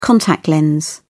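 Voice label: "contact lens", British English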